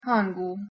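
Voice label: "h2emghu", Proto-Indo-European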